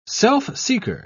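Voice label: "self-seeker", British English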